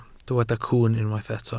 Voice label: "cwwn", Welsh